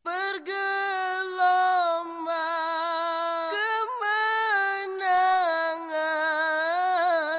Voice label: kannada funny tone; Indian